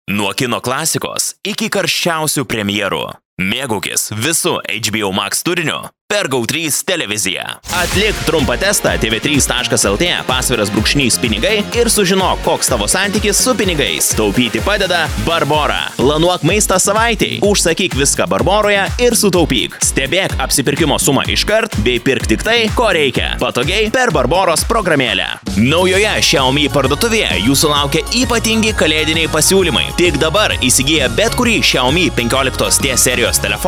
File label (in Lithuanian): balsai lietuviu vyras